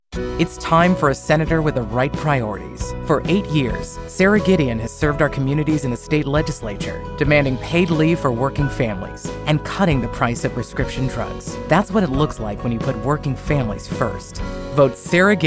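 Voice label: upbeat positive call to action persuasive energetic influential compassionat, Female Voice Talent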